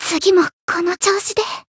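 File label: win, Japanese; yuna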